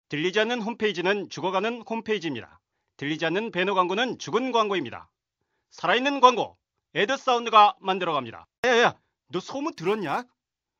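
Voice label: Korean male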